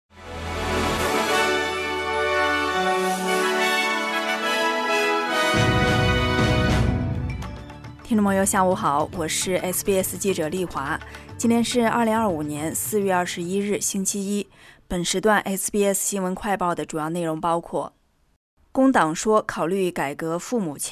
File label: mandarin newsflash; 工党说考虑改革父母签证制度; SBS Chinese; SBS新闻快报